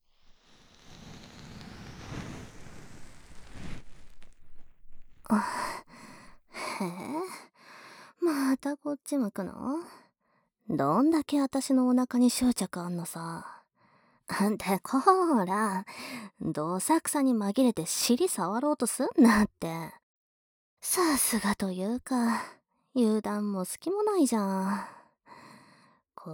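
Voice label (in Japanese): 【左】こしょこしょ(始), ASMR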